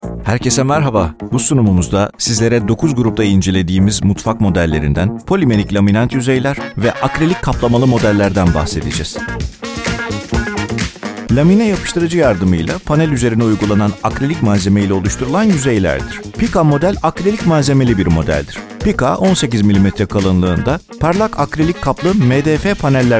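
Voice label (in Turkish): tanıtım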